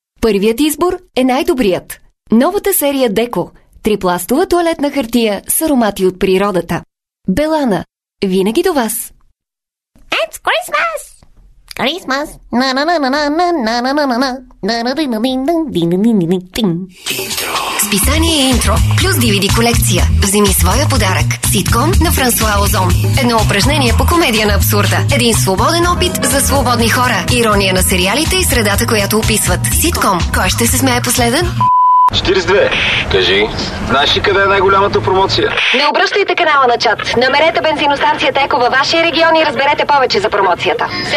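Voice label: Bulgarian, female, Commercial, voice over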